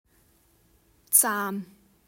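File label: "zahm", German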